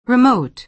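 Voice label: "remote", American English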